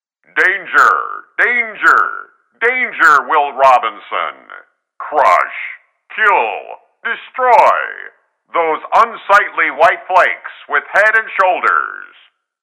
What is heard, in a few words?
Robot, character voices; Lost In Space Robot